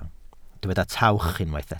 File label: "tawch", Welsh